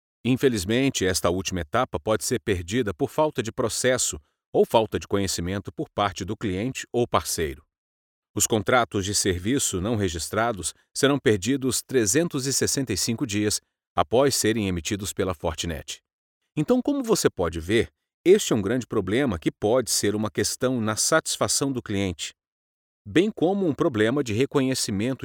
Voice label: portuguese brazilian corporate videos